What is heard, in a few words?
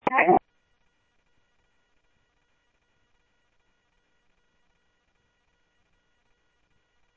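Sheriff West County, scanner audio trunk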